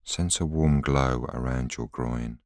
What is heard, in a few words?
voiceover